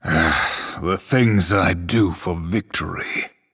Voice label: Male Night Elf Prologue